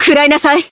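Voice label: Voice attack Japanese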